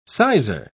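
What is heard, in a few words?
"sizer", British English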